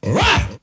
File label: "rock", voice; ooh ahh